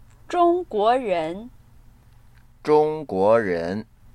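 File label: zhong guo ren, Chinese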